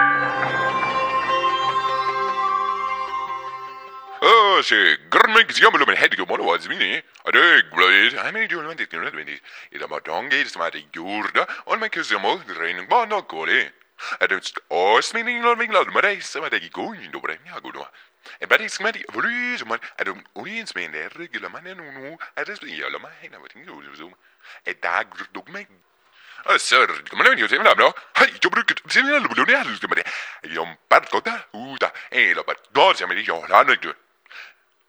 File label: tv News; Singles; French Version